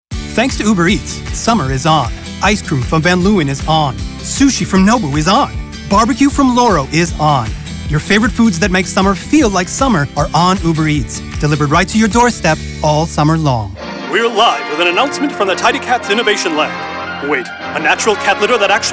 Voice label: Commercial